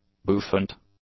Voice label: "bouffant", English; adjectives